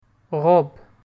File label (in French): "robe", French